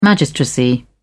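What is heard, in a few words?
"magistracy", British English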